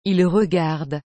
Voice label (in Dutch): "il regarde", frans; regarder